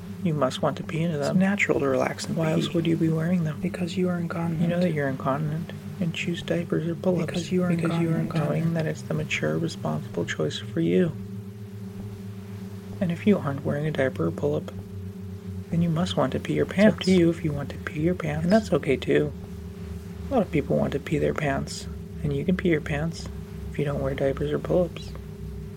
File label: potty retraining wetting go body binaural, hypno; potty retraining 2